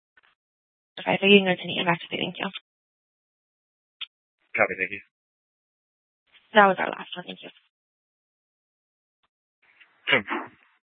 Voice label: Mesa PD Superstition Dispatch; TOPAZ Regional Wireless Cooperative (TRWC); Mesa Simulcast